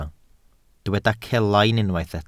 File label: "celain", Welsh